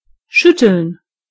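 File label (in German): "shake", German; gcompris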